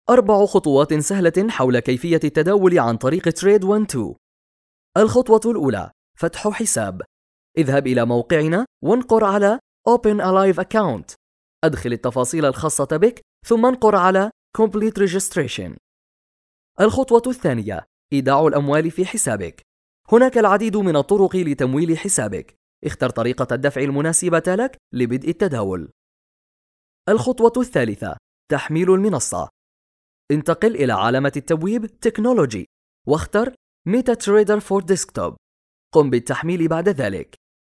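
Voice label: arabic male; lektoring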